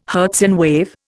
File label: "Hertzian wave", Indian